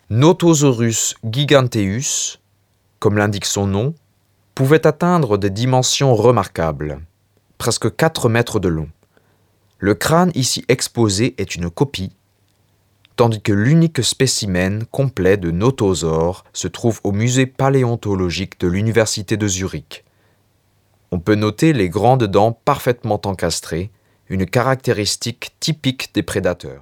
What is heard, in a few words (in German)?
Französisch Audioguide